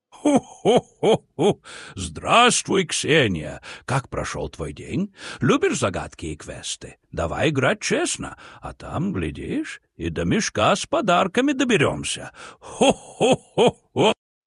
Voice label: santa message